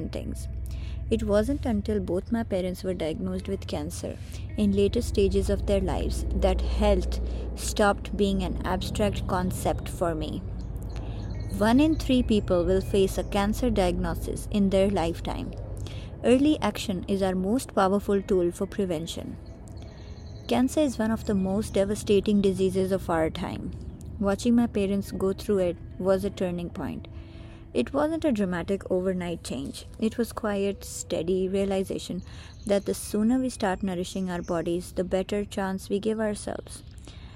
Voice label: Green Juice Voiceover